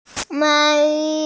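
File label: "sweater", hearing impaired; mao2yi1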